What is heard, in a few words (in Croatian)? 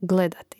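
"gledati", hrvatski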